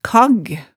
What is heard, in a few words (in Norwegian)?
"kagg", hemsedalsmaalet tale